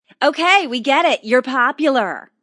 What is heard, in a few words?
Woman Says You're So Popular Sarcastic, A woman sarcastically says; Talking